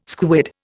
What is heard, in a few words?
"squid", Indian